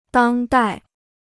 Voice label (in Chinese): "当代", Chinese